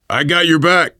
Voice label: im with you, voice, biker; Left 4 Dead 2; left4dead2 dlc3; survivor